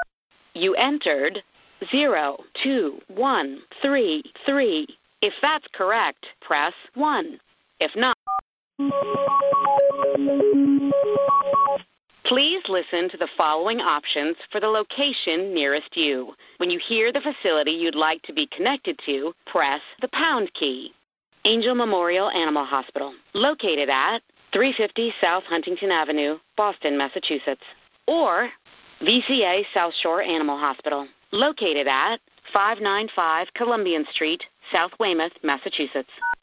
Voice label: TELEPHONE IVR